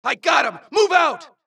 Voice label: supress, dialogue Allies; mohaa; Mission 2